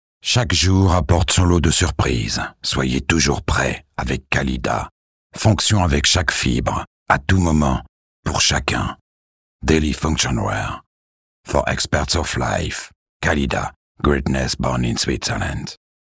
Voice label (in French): Publicite; trailer; CALIDA